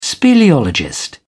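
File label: "speleologist", English, British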